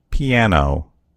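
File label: "piano", American English; gcompris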